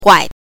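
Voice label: "guai", Mandarin Chinese pinyin, neutral tone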